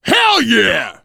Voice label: reaction positive, voice, biker; Left 4 Dead 2; left4dead2 dlc3; survivor